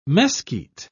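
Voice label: "mesquit", British English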